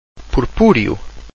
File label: "purpureo", Portuguese